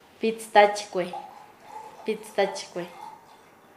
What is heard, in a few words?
"joven hombre joven", Nasa Yuwe; paez